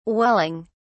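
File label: "welling", British English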